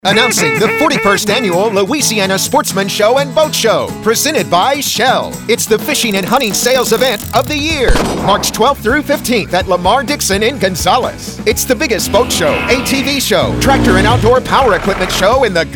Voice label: radio spot